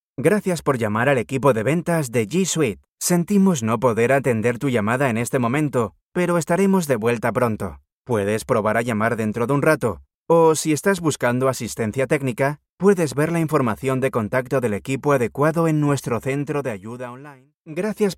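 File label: interactive voice response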